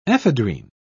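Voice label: "ephedrine", English, British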